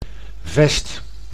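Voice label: "vest", Dutch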